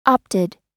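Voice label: "opted", US English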